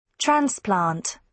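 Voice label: "transplant" noun, British English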